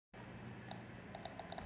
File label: voice message